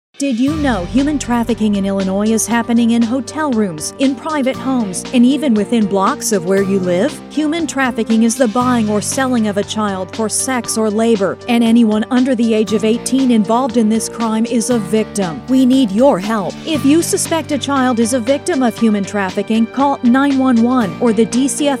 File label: public service announcements; human trafficking; DCFS